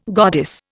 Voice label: "goddess", Indian